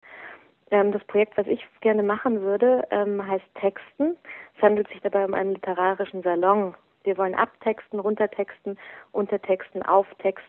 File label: Voicemail